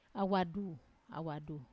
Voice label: "awadu", Wamesa